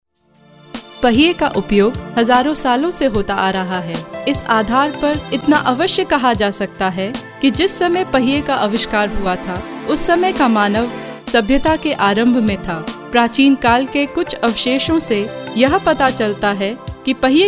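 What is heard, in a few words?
hindi, delhi, female voice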